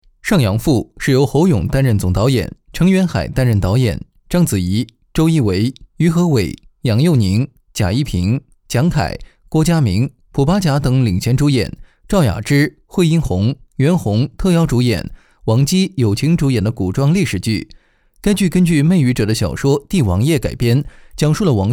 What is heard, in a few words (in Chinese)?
影视解说干音